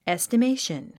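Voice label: "estimation", English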